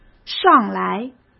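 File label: "上来", Mandarin Chinese; chinese-flashcards; hsk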